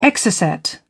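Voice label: "exocet", British English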